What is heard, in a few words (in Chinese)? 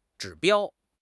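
"指标", Chinese; HSK; HSK6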